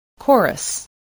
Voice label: "chorus", English